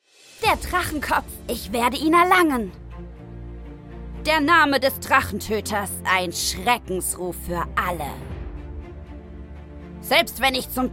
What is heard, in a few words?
german video games; german voice actors